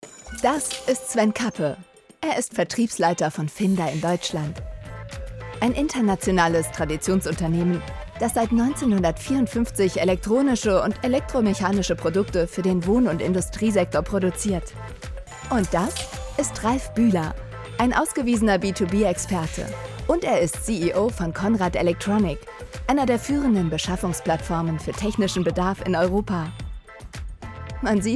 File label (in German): imagefilm; conrad